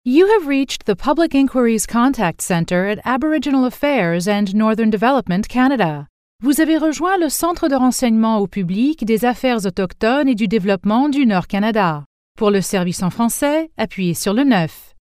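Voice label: bilingual Canadian French English IVR, bilingual voice artist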